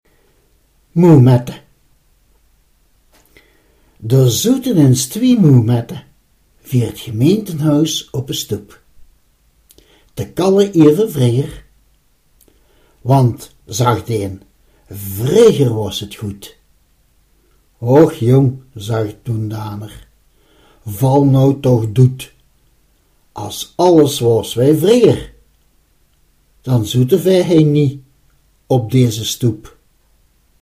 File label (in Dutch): gedicht, dialect; moemette